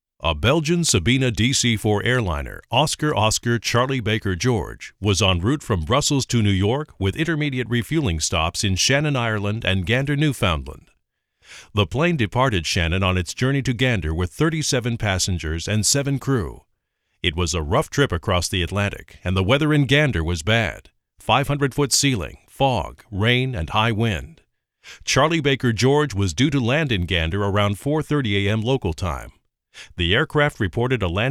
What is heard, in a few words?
narration